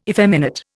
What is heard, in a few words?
"effeminate", Indian